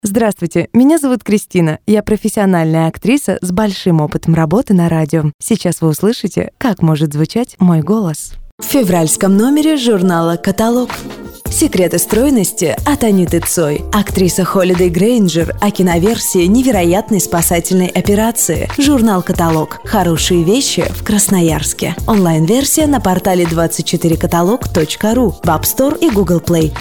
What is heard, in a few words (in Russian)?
Дикторы женщины; diktor; women